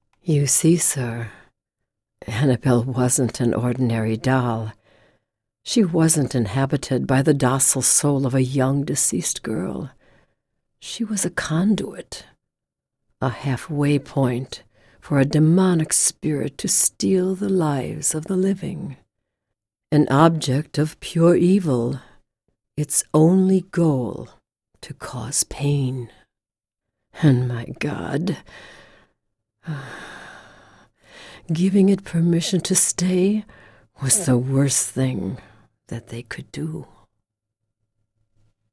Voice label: movie trailer